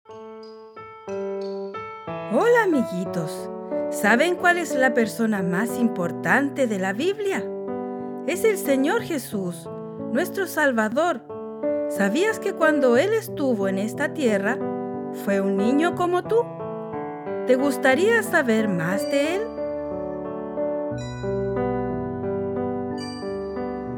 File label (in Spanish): Locucion